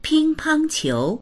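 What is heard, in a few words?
"ping1pang1qiu2", Mandarin Chinese; 乒乓球; ピンポン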